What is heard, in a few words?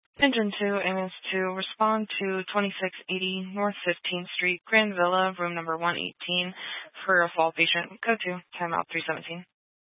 Fire Department Dispatch, scanner; Grand Junction